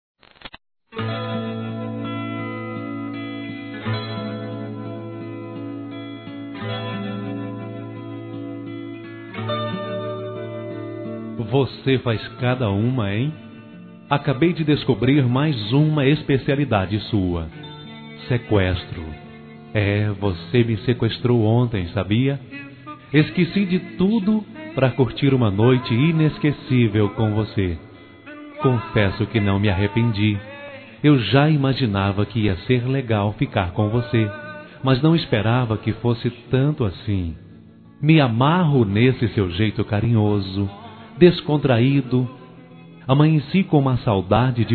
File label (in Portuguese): Masculino; Você Faz Cada Uma; Amizade; Telemensagens